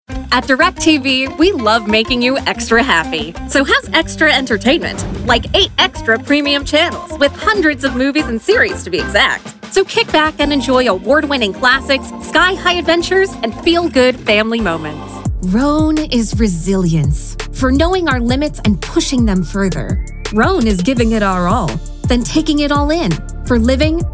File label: Voice Over Enchantress Commercial